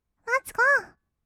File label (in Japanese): "ワッツゴー", kawaii voice; ASMR; 1200 kawaii voice!!; 音ゲー